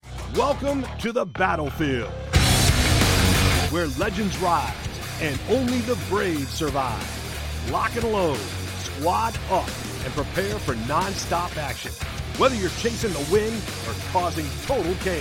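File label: animation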